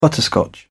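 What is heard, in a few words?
"butterscotch", English, British English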